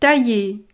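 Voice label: "tailler", French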